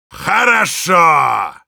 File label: positive vocalization, ru